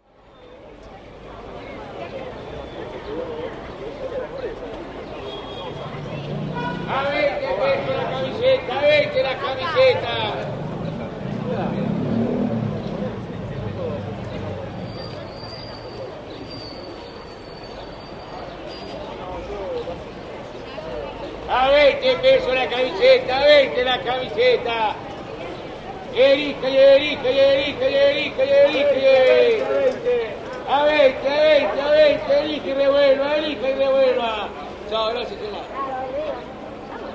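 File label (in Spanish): vendedor camisetas futbol peatonal; cordoba y mitre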